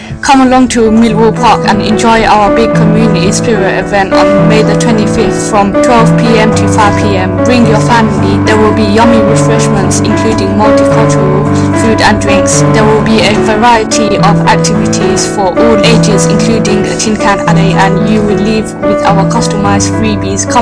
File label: community spirit radio speech